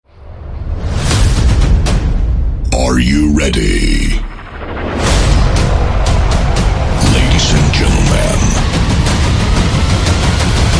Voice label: DJ Intro Male